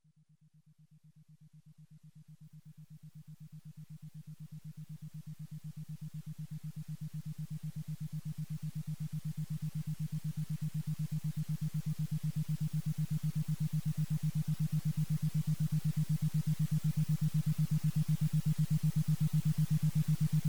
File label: Whole Brain Gratitude Meditation, 432; quantum mind method